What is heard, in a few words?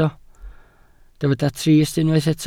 "trist", Welsh